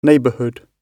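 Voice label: "neighbourhood" spoken, British English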